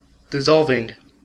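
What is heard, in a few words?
"dissolving", American English; pronunciations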